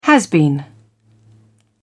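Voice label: "has-been", British English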